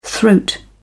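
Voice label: "throat", British English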